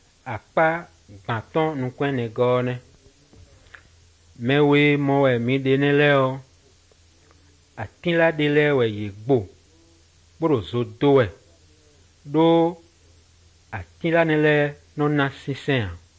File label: good news, fon fongbe bible drama